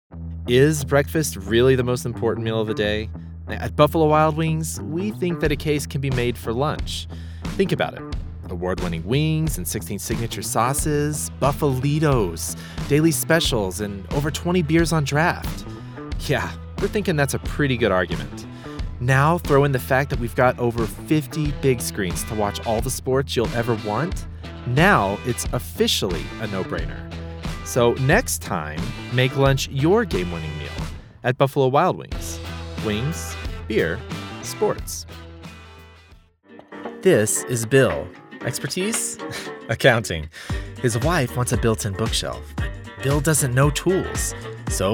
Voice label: Commercial